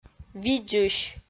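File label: "видзысь", Komi, female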